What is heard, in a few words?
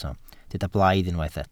"blaidd", Welsh